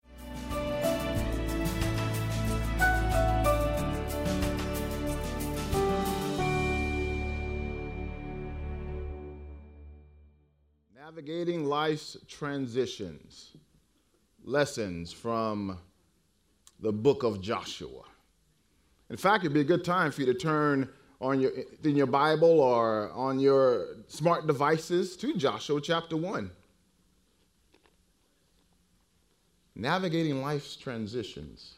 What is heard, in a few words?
English Sermon